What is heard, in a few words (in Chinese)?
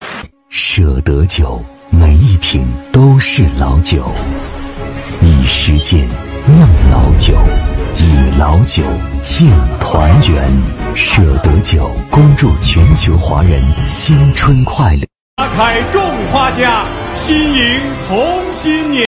男 酒; CCTV; 舍得酒